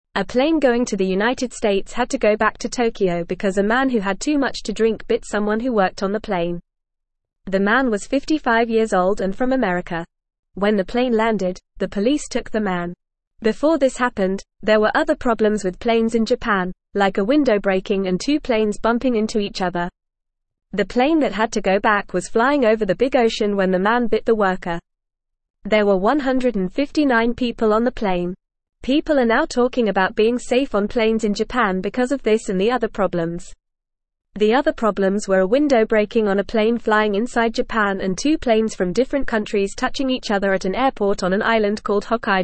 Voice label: English Newsroom Beginner FAST Reading; Plane Trouble Man Bites Worker Other Problems in Japan